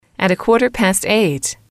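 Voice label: "a quarter past eight", female, English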